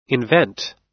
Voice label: "invent", us